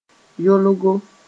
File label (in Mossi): "yolgo", moore; sack made of linen